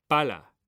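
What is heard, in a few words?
"pala", Spanish, male; mekanta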